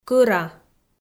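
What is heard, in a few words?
"кӧра", Komi-Zyrian, female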